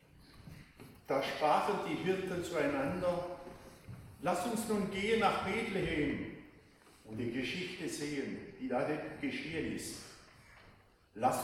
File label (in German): Chor im Advent Ansprache